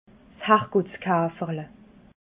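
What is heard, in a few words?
"harrgottskaferle", Alsatian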